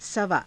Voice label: "savaa", hindi